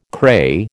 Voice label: "cray", American